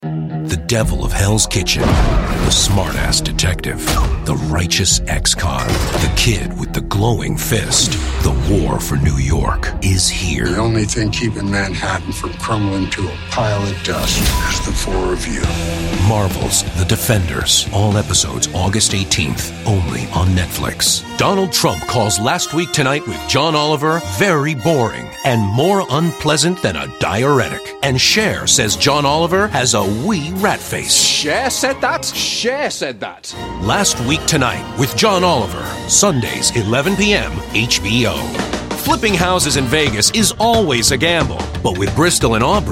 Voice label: Promo